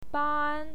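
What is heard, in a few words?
"ban", Mandarin Chinese, first tone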